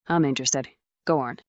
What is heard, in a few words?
fem